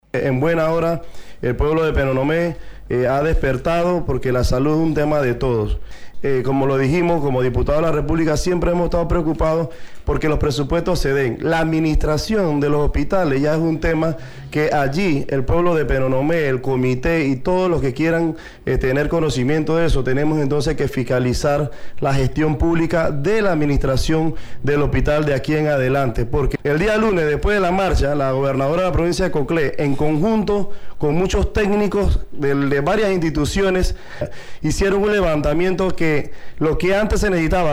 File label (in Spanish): INFORMATIVO; autoridades anuncian partida de 5.5 millones para el aquilino tejeira